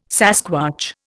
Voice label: "sasquatch", Indian